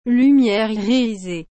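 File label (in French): "lumière irisée", French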